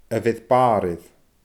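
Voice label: "a bheir barr air", Scottish Gaelic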